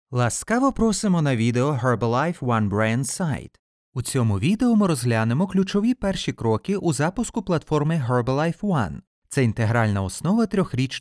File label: ukrainian corporate videos; Vídeos corporativos